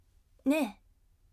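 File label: voice nee firm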